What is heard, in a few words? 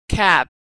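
"cap", American English; vowel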